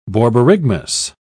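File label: "borborygmus", British English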